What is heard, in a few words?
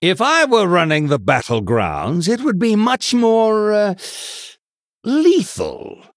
Male Human Flavor